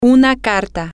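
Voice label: letter, Spanish, female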